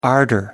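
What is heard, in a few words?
"ardor", English, British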